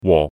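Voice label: "wo4", Cantonese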